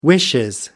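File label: "wishes", English, British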